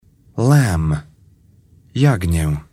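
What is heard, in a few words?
"lamb", English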